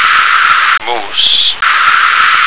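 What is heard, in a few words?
"moose", American English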